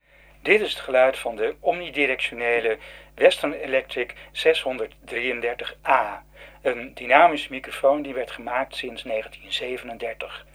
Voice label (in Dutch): Western Electric 633A sound NL